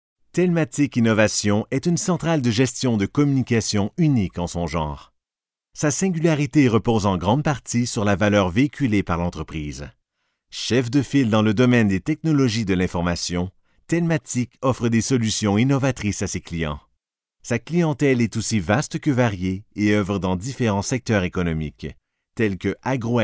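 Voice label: French Canada male voice over, sales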